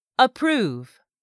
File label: "APPROVE", english; 3000 words